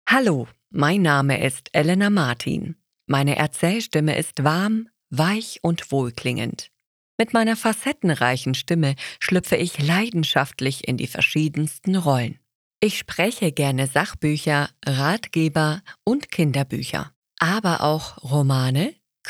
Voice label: audiobooks; Stimmen Weiblich